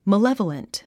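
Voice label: "malevolent", English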